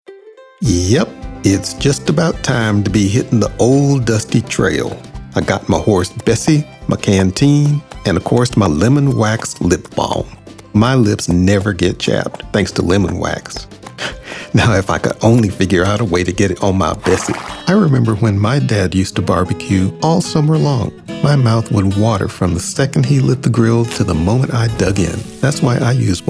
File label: Commercial